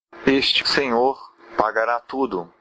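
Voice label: pay, Brazilian Portuguese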